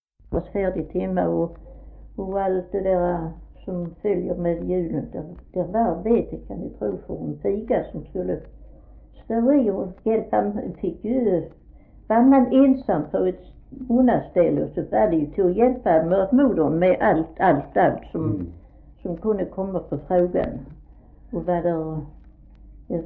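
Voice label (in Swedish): Fleninge Skåne, dialekter; Folkminnen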